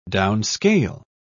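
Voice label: "downscale", English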